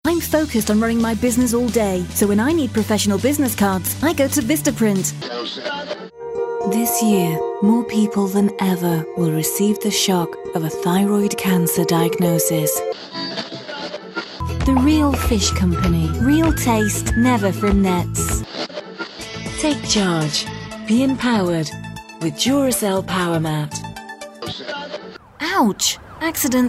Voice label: uk commercials